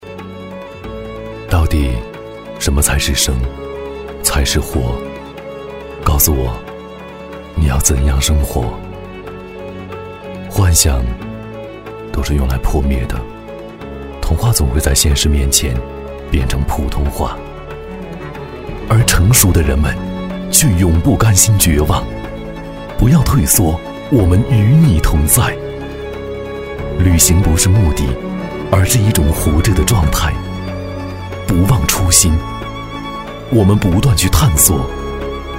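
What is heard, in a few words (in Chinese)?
男 独白, 磁性; 与梦同行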